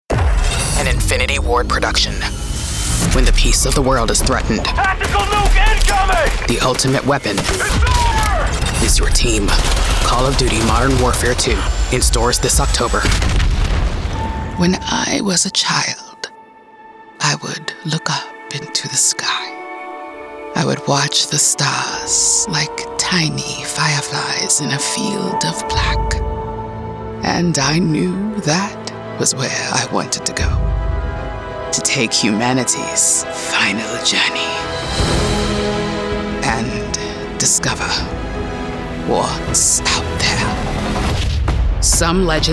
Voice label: Video Game Trailer Narrator Character Storyteller Epic Dramatic